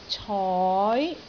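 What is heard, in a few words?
"coi2", Cantonese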